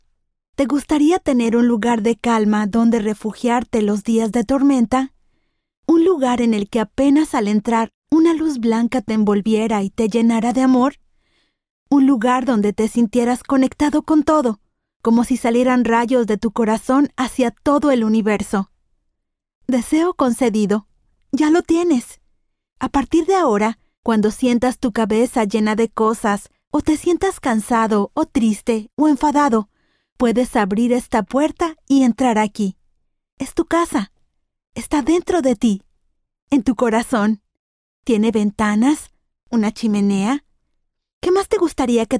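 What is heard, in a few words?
Spanish, mindfulness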